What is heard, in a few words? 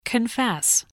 "confess", english